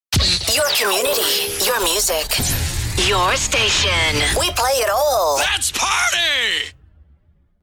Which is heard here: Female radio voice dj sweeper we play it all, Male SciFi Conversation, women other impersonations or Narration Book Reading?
Female radio voice dj sweeper we play it all